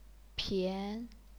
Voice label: "pian2", chinese pinyin